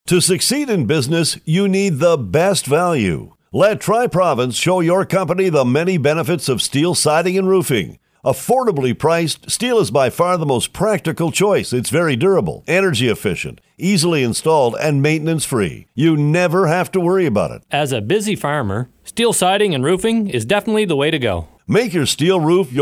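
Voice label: Best Value Announcer; Tri-Province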